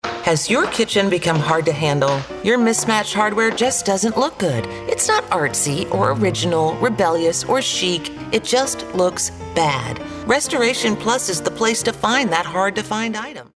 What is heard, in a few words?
conversational